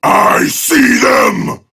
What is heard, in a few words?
Enemy Spotted Prime Grineer Male